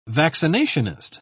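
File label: "vaccinationist", British English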